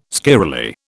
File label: "squirrelly", British